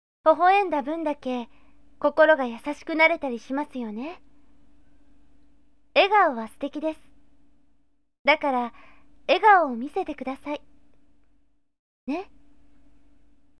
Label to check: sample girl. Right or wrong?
Right